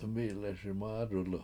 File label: mI7leSi mAAdulu With Both Your Hands, Washo